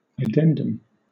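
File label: "addendum", British English; pronunciations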